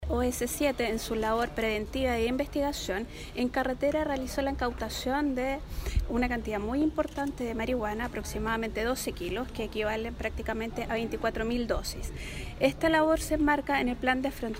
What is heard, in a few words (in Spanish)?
Cuña; Gobernadora Copiapó